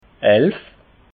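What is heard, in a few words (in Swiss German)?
"elf", Alsatian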